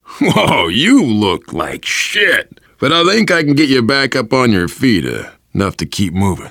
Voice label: revive friend, voice, biker; Left 4 Dead 2; left4dead2 dlc3; survivor